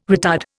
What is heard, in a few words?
"retard", Indian